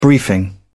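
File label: "briefing", English, British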